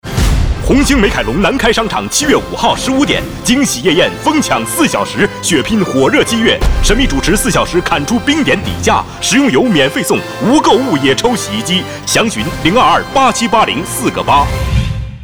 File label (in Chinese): 男 广告 促销 激情; 红星美凯龙